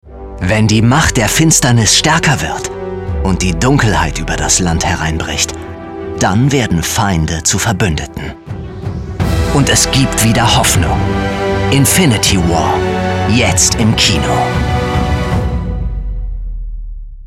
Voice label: Trailer Action Adventure, German; voice

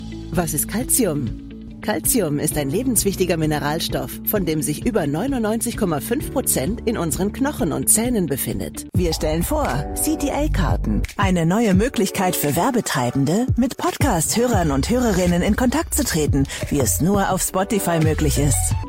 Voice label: German; BAC

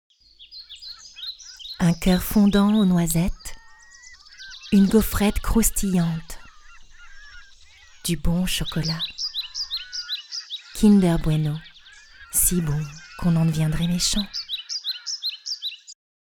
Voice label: Commercial Chocolate

